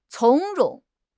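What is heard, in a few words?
"从容", Chinese; HSK6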